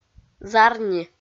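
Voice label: "зарни", Komi-Zyrian, female; audio dict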